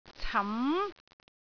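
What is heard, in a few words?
"cam2", Cantonese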